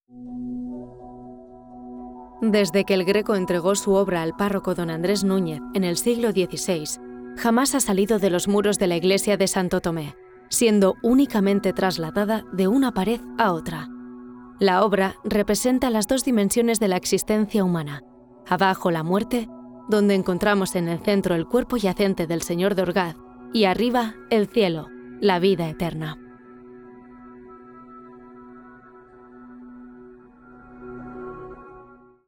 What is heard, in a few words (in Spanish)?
Narrativo Castellano